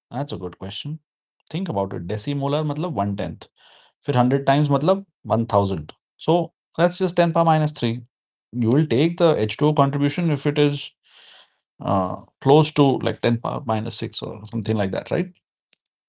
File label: voice message; ph calculation